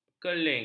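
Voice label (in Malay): "kerling", Malay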